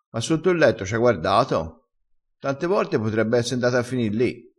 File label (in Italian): "tante vorte", crespinese